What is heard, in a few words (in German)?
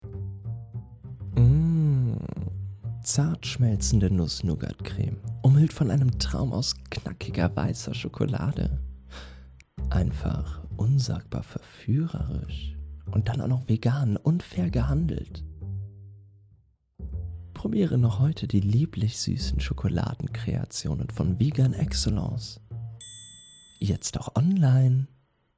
advertisement vegane schokolade german